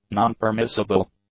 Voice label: "non-permissible", english; adjectives